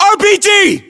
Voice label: US threat rpg, battlechatter; english